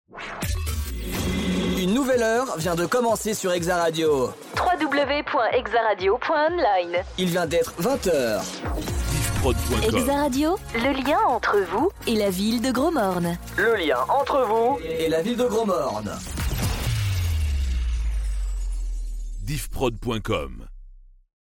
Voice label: Radio Top H 20h; Hexa